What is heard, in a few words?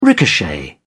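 "ricochet", British English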